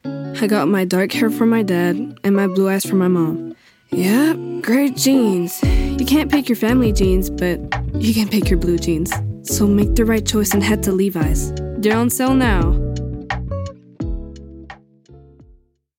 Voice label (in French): Vocal, Publicité; Levis